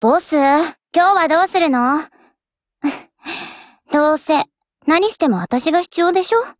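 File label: HELLO, Japanese; GF Alarm; AK74U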